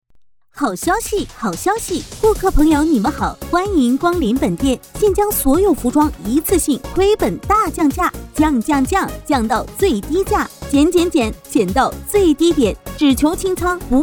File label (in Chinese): 促销类